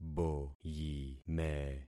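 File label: "boyime", concatenated syllables; Affixing experiment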